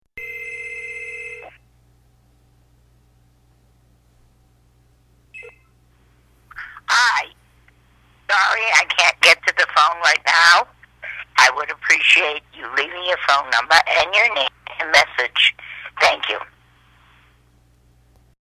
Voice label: Phone Message